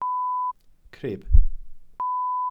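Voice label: "crib", Welsh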